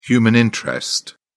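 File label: "human interest", English, uk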